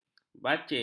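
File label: "bacin", Malay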